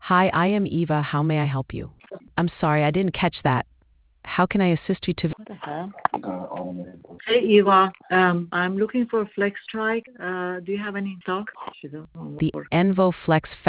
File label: conversation